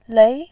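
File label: "lei2", Mandarin Chinese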